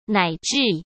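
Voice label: "乃至", Mandarin Chinese; chinese flashcards; hsk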